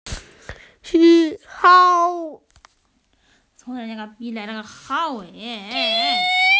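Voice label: "yu wang" (fishing net), Chinese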